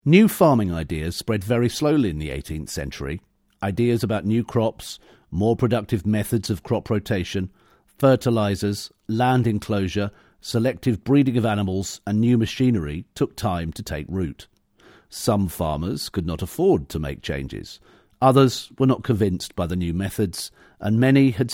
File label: BRITISH